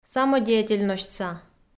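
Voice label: "самодеятельносьтса", Komi, female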